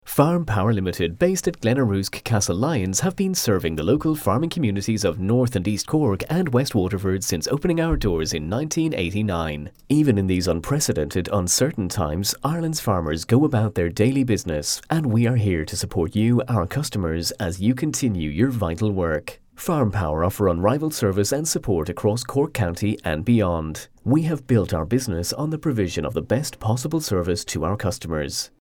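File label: English, Irish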